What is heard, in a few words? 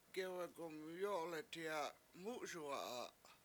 coyote got tired ran away, Washo; gEwe gumyO7ol Eti7a Mu7uSuwa7a